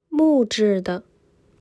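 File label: "木质的", Chinese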